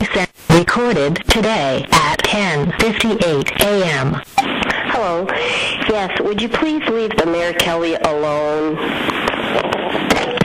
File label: phone message